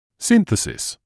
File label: "synthesis", English